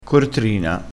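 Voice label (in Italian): "cortrina", vocabolario dialettale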